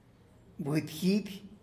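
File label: "hen", Tlacolula Zapotec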